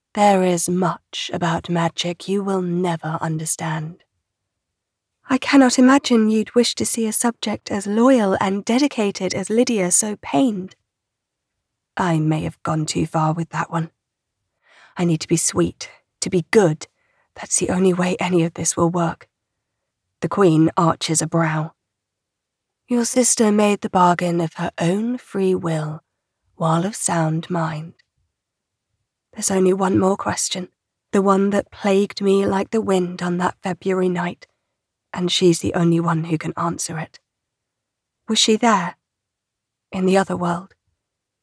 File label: YA fantasy teenage character and immortal fae